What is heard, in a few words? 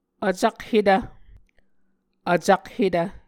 "vcakhetv", muscogee